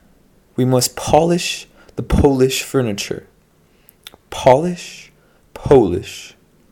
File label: polish